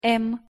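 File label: "м" Russian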